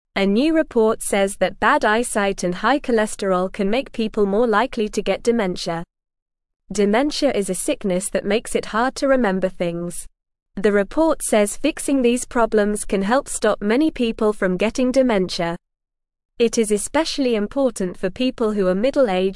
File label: English Newsroom Beginner NORMAL Reading; Stay Healthy to Help Our Brains and Memories